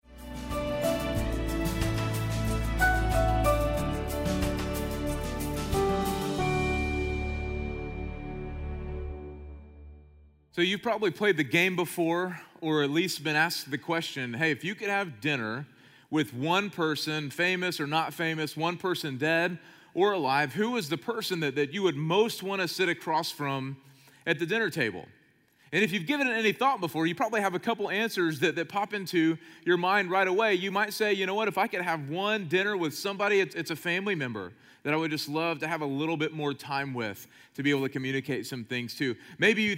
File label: Sermon English